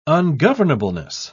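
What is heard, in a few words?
"ungovernableness", British English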